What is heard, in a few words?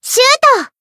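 Voice attack, Japanese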